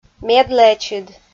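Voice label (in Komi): "медлэчыд", Komi, female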